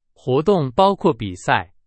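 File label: Chinese; hsk4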